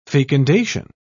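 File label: "fecundation", British English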